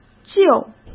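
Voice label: "旧", Mandarin Chinese; hsk; chinese-flashcards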